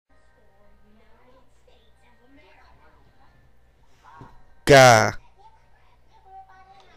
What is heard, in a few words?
Tone 1 is starting tone male